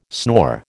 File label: "snore", American